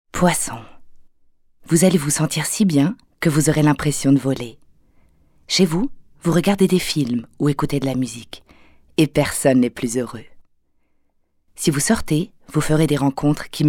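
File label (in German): fr horoskop, French; sprecher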